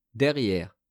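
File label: "derriere", French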